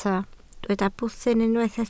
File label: "bwthyn", Welsh